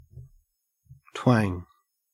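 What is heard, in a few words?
"twang", Australian English; pronunciations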